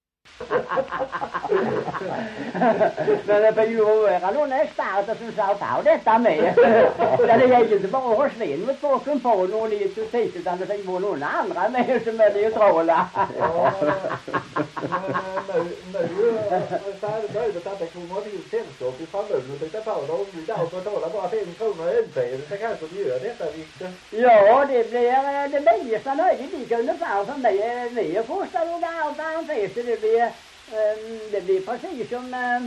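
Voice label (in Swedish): Ramdala Blekinge, svenska sagor dialekter; Folkminnen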